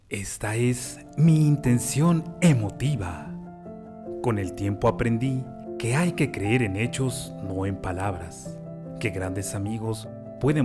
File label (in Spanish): EMOTIVA, locutor